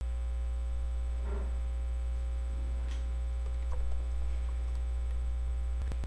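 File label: "pick", spoken word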